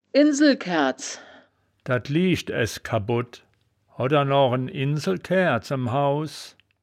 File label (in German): "insel kaeaerds", wittlich